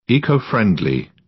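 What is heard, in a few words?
"eco-friendly", British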